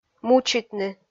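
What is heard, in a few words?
"мучитны", Komi, female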